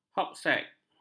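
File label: "hopsack", Malay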